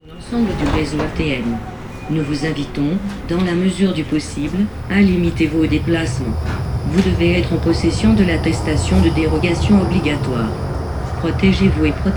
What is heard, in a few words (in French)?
Annonce Tramway Corona